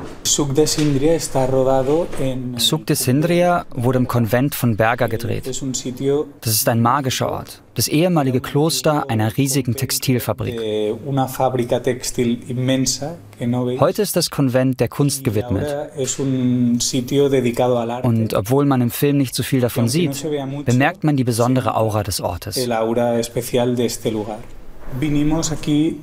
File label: voiceover; arte